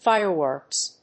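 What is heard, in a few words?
"fireworks", English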